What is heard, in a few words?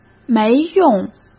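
"没用", Mandarin Chinese; hsk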